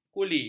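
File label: "kuli", Malay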